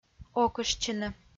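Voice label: "окыштчыны" Komi-Zyrian, female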